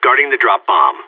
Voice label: guarding loose bomb, vo agents seal; Source Model Packs; Counter Strike Model Pack; CS2

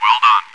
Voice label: well done, radio; Counter Strike Model Pack; CS:GO

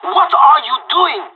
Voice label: friendly fire, pirate; Counter Strike Model Pack; CS:GO

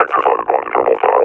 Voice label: taking fire, combine officer; Half Life Alyx; Half Life Model Pack

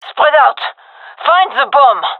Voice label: hear bomb, gendarmerie fem; vo

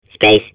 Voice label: babble; Portal 2

